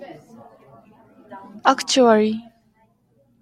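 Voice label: "actually", Japanese female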